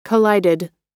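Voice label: "collided", American English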